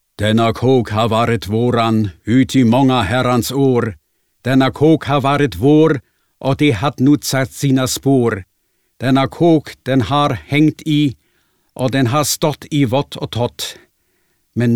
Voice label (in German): Schwedisch Trettifyran